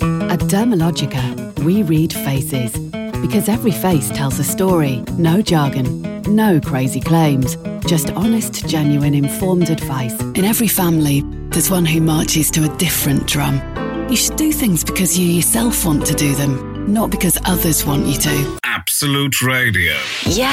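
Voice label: commercial reel, Engaging Fun Sexy Female Voiceover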